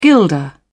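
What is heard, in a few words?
"guilder", British English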